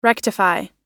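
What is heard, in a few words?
"rectify", American English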